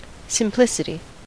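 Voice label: "simplicity", American English; pronunciations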